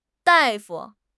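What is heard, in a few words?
"dai4 fu5", Mandarin Chinese; HSK4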